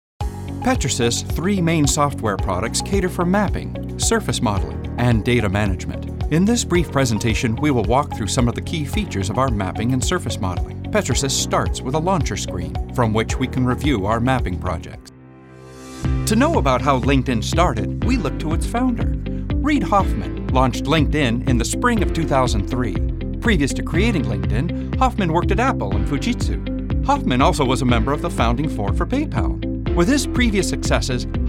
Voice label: Elearning

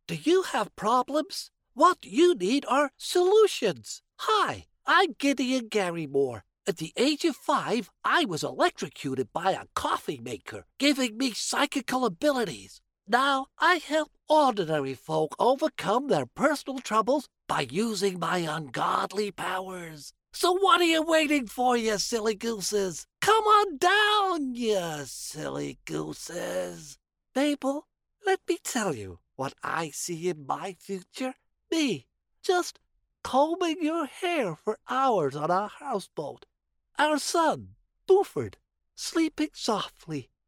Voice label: Cartoon

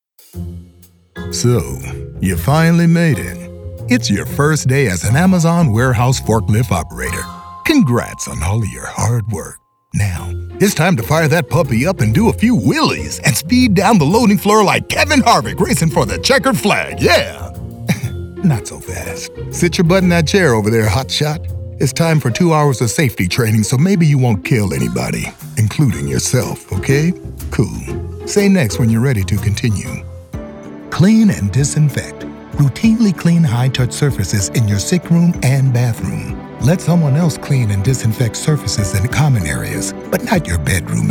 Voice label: E-Learning

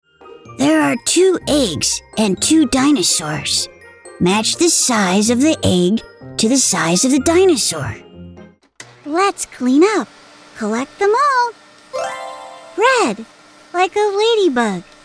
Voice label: K12 Interactive

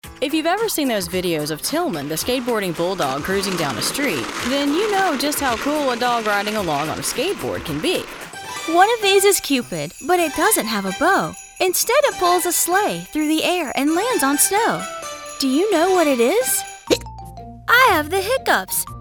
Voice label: Narration

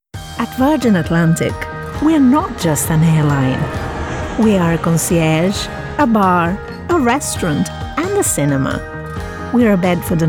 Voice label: Corporate British

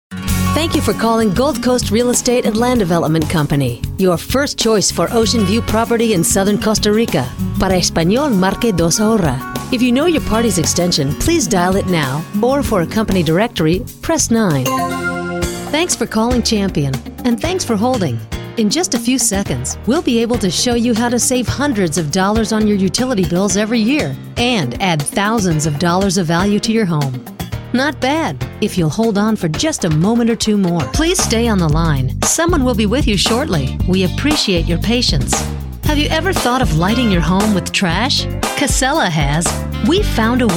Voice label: IVR phone message

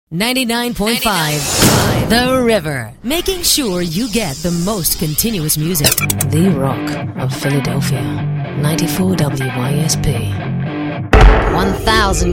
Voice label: Promo

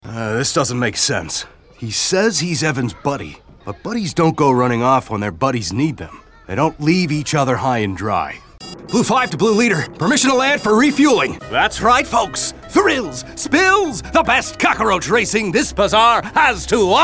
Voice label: Animation

